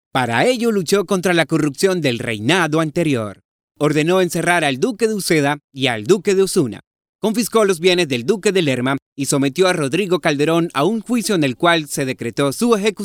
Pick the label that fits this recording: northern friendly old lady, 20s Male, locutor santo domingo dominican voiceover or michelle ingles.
locutor santo domingo dominican voiceover